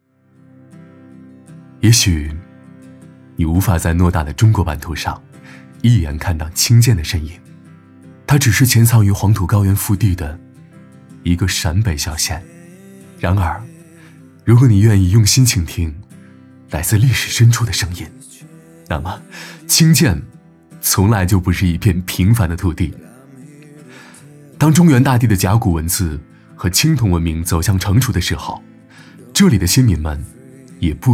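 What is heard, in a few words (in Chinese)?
男国大音-城市; 清涧县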